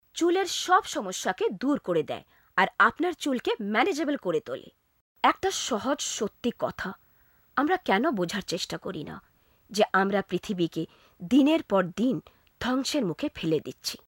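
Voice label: Bengali, Bangladesh, female; voice over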